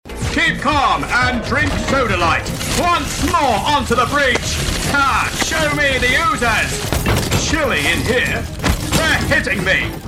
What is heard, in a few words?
british general bark lines